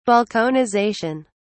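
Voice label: "balkanization", British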